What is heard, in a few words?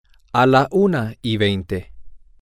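one twenty, Spanish, male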